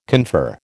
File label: "confer", American